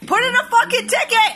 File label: angry